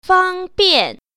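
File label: "fang1bian4", Mandarin Chinese Tone Pair Drills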